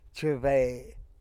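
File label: "chebe", San Lucas Quiavini Zapotec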